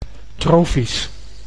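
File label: "trofisch", Dutch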